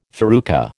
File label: "farruca", American